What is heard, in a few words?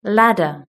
"ladder", British English